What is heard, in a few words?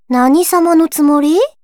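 story, Japanese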